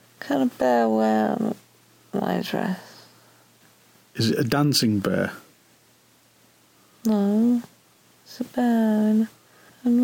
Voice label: sleep talking about a bear

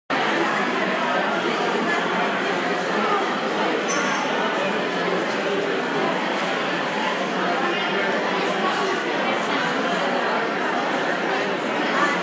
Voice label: lots of conversations taking place; at stirchley

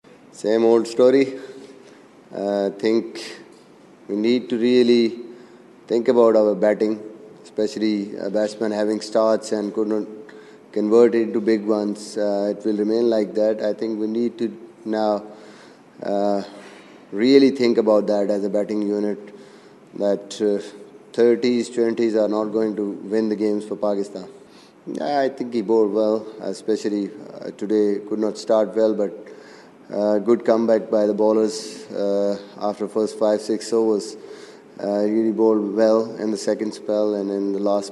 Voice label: media conference english; misbah ul haq

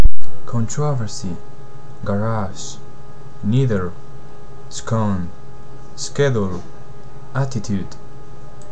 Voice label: spanish accent

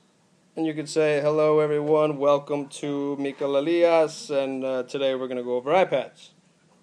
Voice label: french

